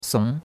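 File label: "song2", Mandarin Chinese; Cours-de-Chinois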